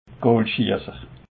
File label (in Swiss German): "goolschesser", alsace